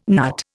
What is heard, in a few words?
"NUT", Indian